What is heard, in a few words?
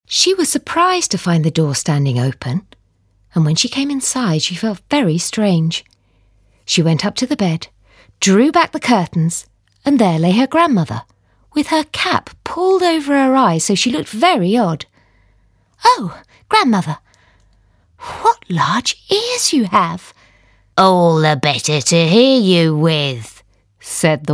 Audiobook